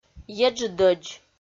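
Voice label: "еджыдӧдз", Komi, female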